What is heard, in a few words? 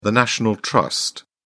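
"national trust", British English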